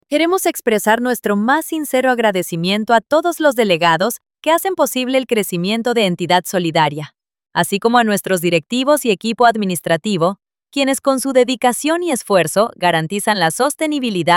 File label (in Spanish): femenino